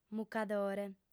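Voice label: "mucadore", female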